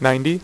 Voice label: "6", poker; iPoker 2000

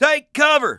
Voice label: detect generic, English Voice

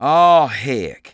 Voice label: curse, English Voice

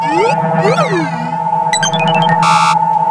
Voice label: Sound Alien upset; Word Wizard Games

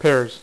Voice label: "pairs", dealer's speech; PowerPOKER Demo

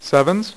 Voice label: "sevens", dealer's speech; PowerPOKER Demo

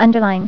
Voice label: "Underline", Female; TalkingKeys

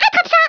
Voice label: fireball, French

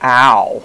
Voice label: ow, voice; Web Clip Empire 150,000

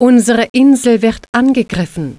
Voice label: island Under Attack, german

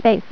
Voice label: "Space", female voice; TalkingKeys

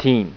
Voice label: "teen", speech; Informer 1.0; InformerSpeak

